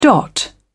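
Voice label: "dot", British English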